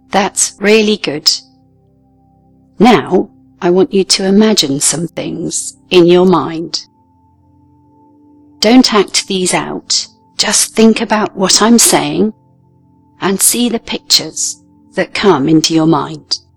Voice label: child hypno relaxation